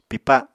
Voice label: "pipa", Apatani